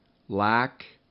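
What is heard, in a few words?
"lack", English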